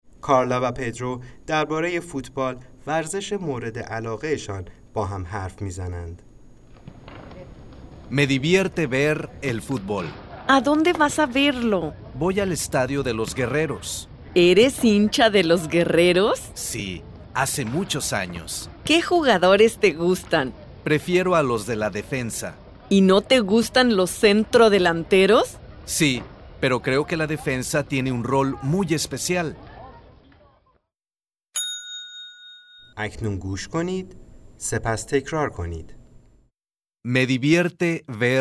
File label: Talking about soccer, spanish